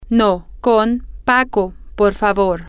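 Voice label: "no with X please", English, female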